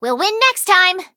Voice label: defeat, en; cookie run